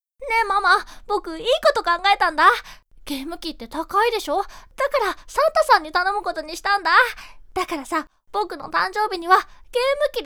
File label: voice boy